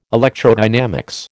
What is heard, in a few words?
"electrodynamics", American